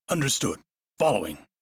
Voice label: Crew Follow, Male; Dialog; en; Warframe; Railjack Crew; Arbiters Hexis